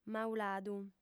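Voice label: "mauladu", female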